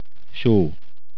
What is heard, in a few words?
Salt, hungarian